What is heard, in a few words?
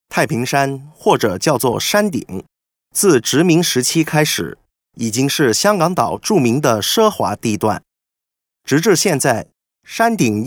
Other Projects Chinese Mandarin Male